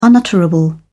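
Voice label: "unutterable", English, British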